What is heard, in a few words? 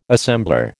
"assembler", American